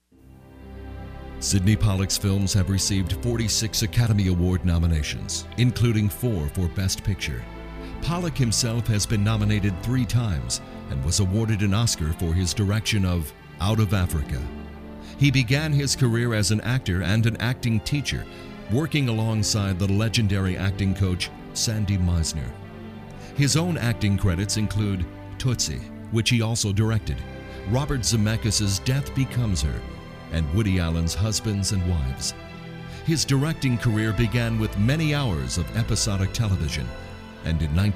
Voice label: Narration